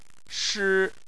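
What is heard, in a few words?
"shi" tone 1, Chinese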